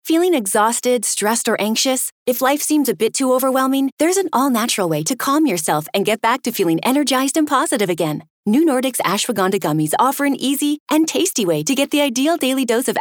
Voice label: Radio; New Nordic Ashwagandha 30